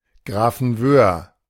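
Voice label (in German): "Grafenwöhr" German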